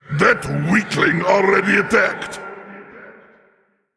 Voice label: Male Elemental Emote ERROR MINION ATTACKED